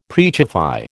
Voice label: "preachify", American